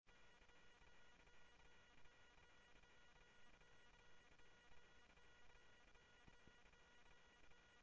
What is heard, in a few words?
Sheriff-EastCnty, scanner audio